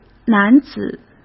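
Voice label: "男子", Mandarin Chinese; hsk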